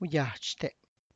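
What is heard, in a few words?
expensive, Tlacochahuaya Zapotec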